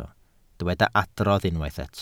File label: "adrodd", Welsh